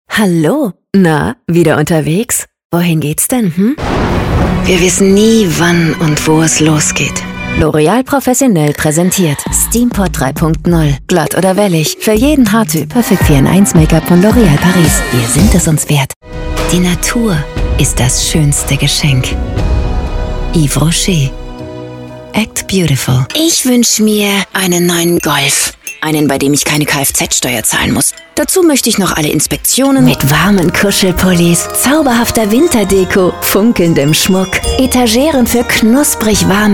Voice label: german commercial

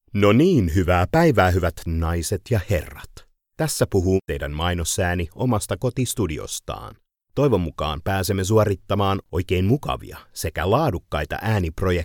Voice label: finnish commercial; Sweden; Finnish English (American)

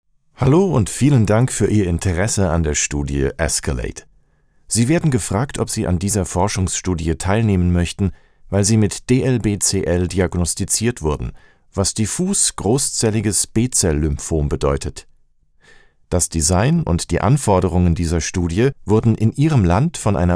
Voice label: german medical narration; actor de doblaje profesional

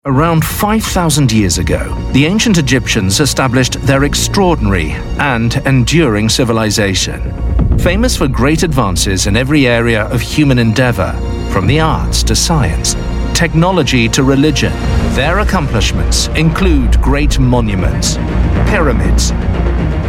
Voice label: english british documentaries

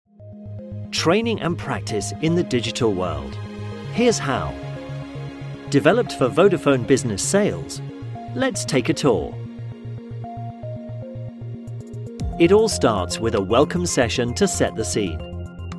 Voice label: english british explainer videos

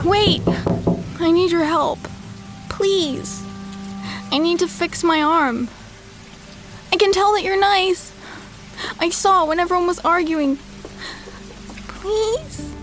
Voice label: english american video games

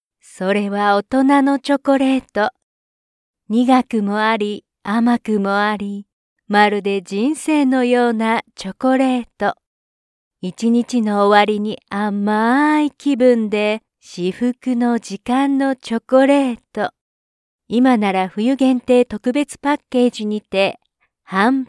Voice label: japanese

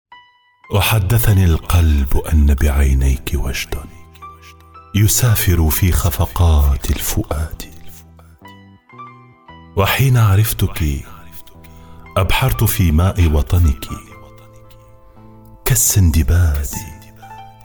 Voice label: arabic others

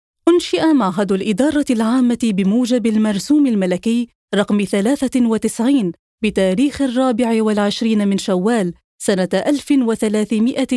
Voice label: arabic commercial

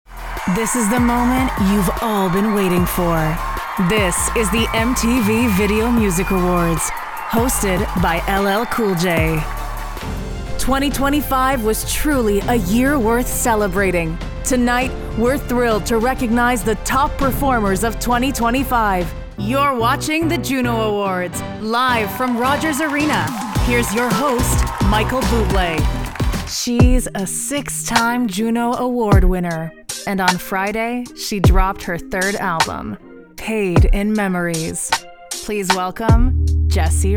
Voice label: english american announcements

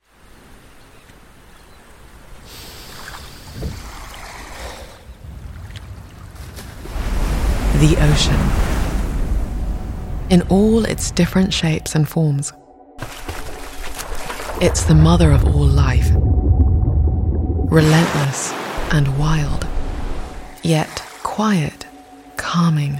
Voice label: English British others

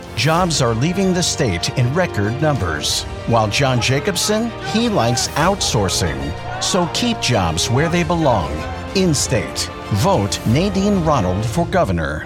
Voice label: english american political ads

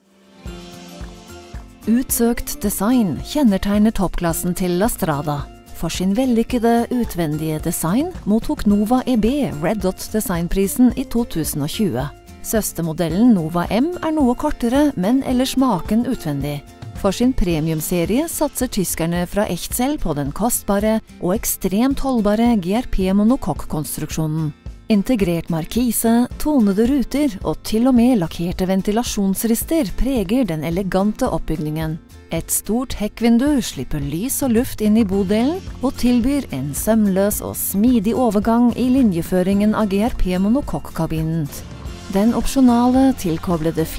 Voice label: norwegian automotive; Deutschland; Deutsch Norwegisch

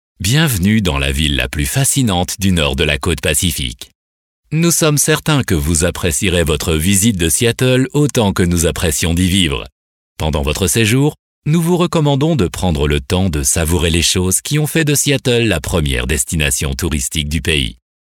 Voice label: french narration

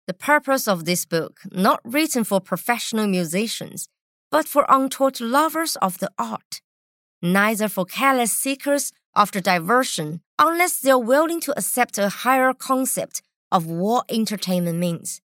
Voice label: english narration

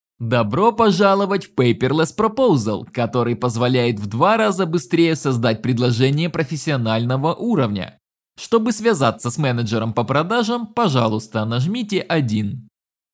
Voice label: russian ivr

